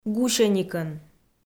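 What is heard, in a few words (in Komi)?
"гусьӧникӧн", Komi, female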